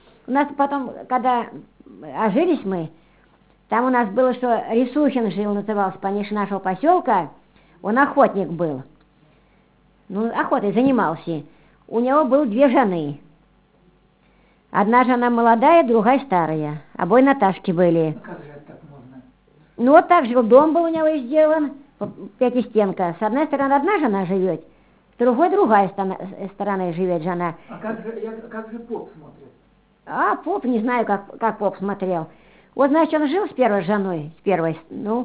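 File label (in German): Tula, Suedrussischen, Jakanje; Text Nr. 10 (Tula)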